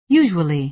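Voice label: "usually", American English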